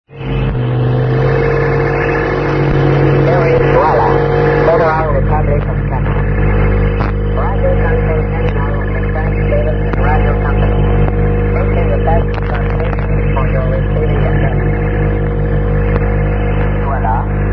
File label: usb